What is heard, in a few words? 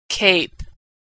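"cape", American English; vowel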